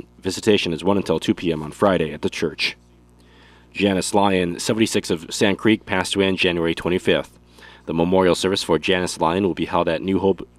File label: Funeral Announcements